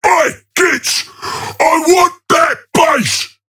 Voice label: Ork Quick Commands Enemy Base; Warhammer 40000